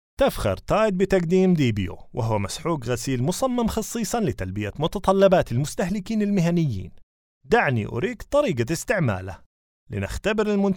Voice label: Gulfie Accent